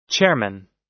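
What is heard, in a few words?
"chairman", American English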